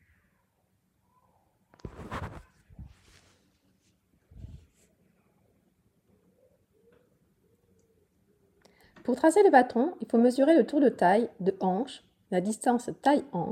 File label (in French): Voix off